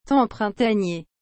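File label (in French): "temps printanier", French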